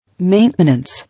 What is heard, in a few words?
"Maintenance", English